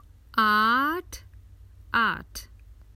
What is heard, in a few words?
Common Hindi Phrases for travel Eight